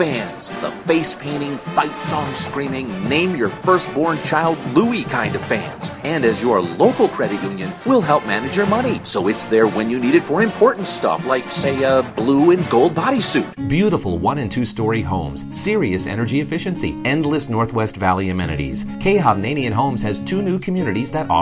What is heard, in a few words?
Commercial, Male voices